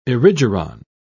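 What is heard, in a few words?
"erigeron", British English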